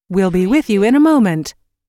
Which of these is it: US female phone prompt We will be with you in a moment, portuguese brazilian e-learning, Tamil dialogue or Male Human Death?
US female phone prompt We will be with you in a moment